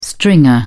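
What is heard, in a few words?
"stringer", British English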